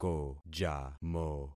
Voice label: "kojamo", concat syllables; Affixing experiment

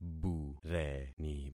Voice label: "bureni", concat syllables; Affixing experiment

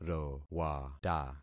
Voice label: "rowata", concat syllables; Affixing experiment

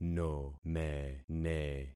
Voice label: "nomene", concat syllables; Affixing experiment

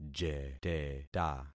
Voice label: "jeteta", concat syllables; Affixing experiment

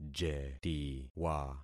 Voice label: "jetiwa", concat syllables; Affixing experiment

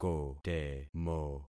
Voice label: "kotemo", concat syllables; Affixing experiment

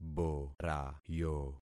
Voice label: "borayo", concat syllables; Affixing experiment

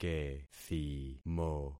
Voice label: "kethimo", concat syllables; Affixing experiment

